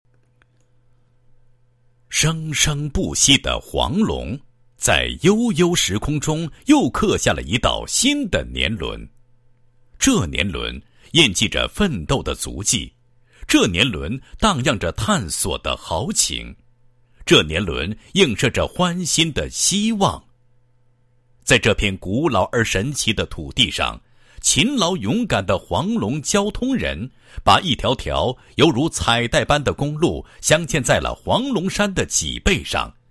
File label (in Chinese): 男; 交通局; 铸就交通的辉煌乐章